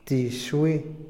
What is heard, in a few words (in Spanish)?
"vecino", Otomí, hombre; INALI